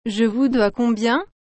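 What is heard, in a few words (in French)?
"Je vous dois combien", French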